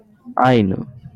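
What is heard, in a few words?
"Ainu", Japanese, male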